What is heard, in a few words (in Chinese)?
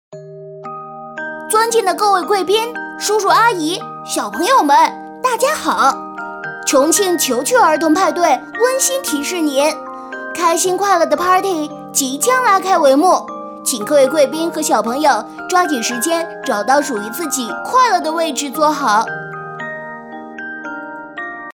女 男童 提示语音, 国语女声配音